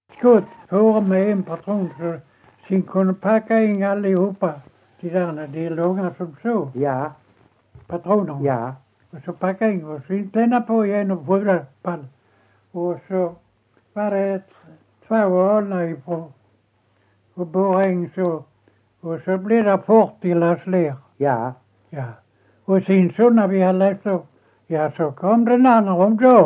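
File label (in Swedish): Ekeby Skåne, dialekter; Folkminnen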